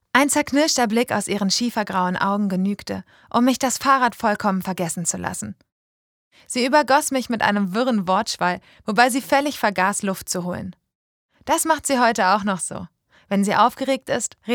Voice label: Hörbuch; Auf der anderen Seite ist das Gras viel grüner; Kerstin Gier

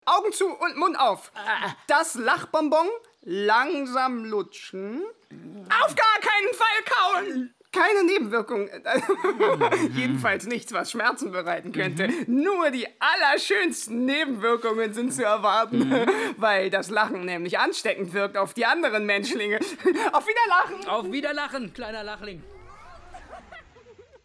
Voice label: kinderhoerspiel; der kleine lachling

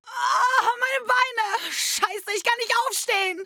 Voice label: verletzte Soldatin; LOFT VOICES

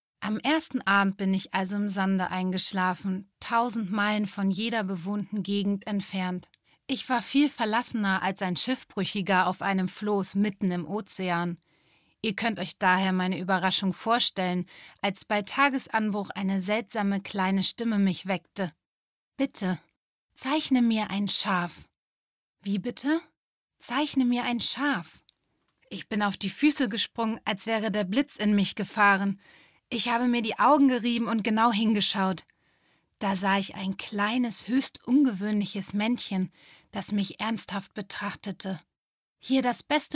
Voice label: erzählung; LOFT VOICES

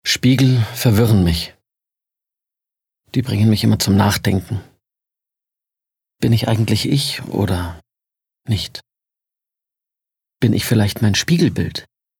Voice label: Innerer Monolog

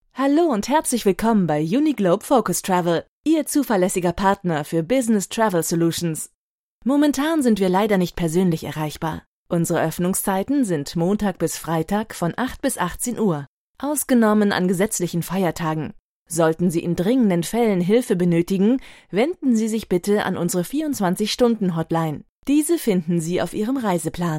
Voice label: Telefonansage; Uniglobe Focus Travel

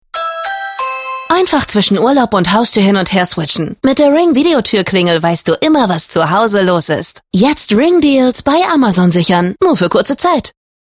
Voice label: Werbung OFF; Ring

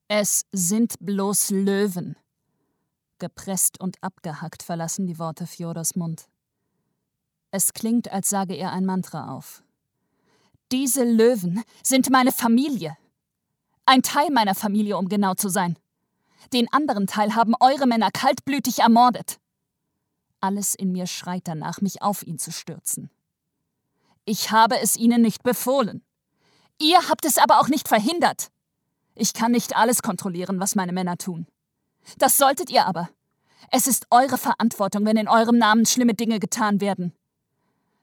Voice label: Stimmprobe Hoerbuch Jugendbuch

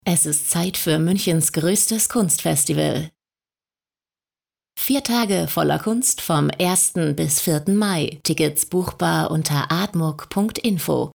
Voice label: Zeitsponsoring; ArtMuc

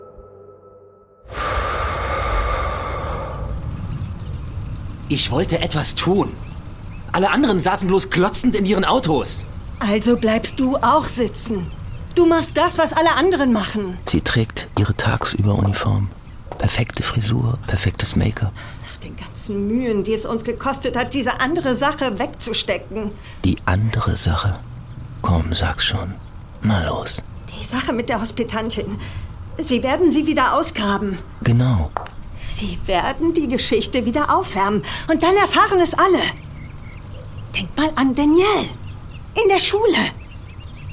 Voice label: Hoerspiel, Audio Drama (Hörspiel), German; NDR Hörspiel Wonder Valley

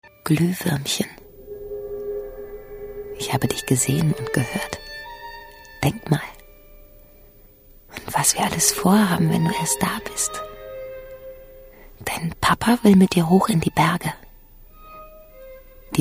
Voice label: erzaehlung

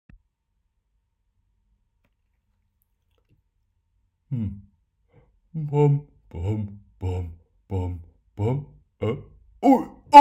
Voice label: Zeichentrick, Trick, German; Zeichentrick Demo

